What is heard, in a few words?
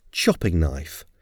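"chopping knife", English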